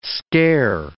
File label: "scare", English